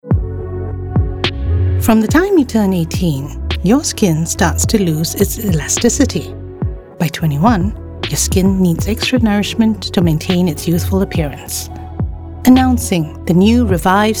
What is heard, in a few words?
Asian English Cosmetics